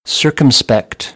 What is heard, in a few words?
"circumspect", American English; ɝː - ɜː